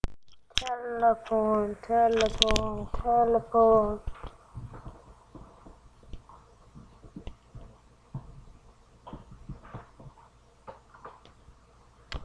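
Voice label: "telephone", spoken word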